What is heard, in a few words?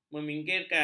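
"meminggir", Malay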